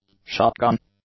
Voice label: "shotgun", English; nouns